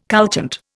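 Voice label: "couchant", Indian English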